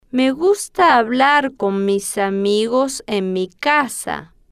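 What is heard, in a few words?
me Gusta Hablar Con Mis Amigos En Mi Casa, Spanish, female, slow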